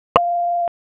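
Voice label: Police Southeast District Dispatch; Raleigh; wake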